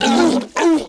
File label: shocked; Star Wars Obi-Wan; XBOX